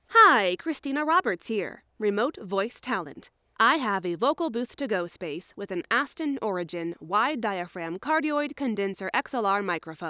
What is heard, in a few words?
studio tone